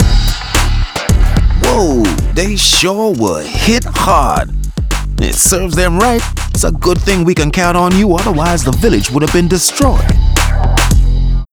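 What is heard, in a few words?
outro victory British; arthur and the revenge of maltazard; Wii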